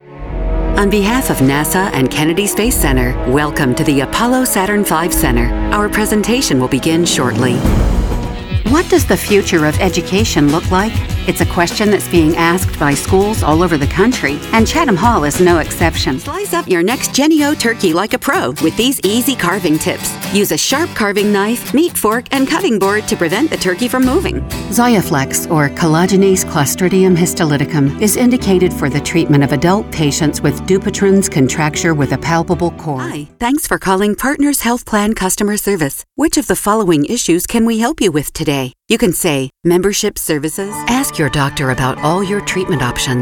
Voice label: narration